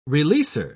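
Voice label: "releaser", British English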